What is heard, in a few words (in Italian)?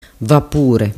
"vapure", dialettu